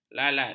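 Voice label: "lalat", Malay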